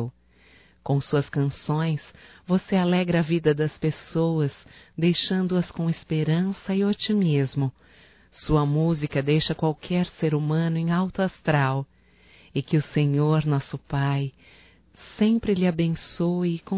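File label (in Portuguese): HOMENAGEM A CANTORA (Feminino), Telemensagens; Homenagens; Telemensagens 2017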